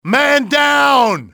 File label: man down, english male; XBOX; enemy